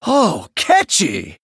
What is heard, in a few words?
Male Human Emote Wow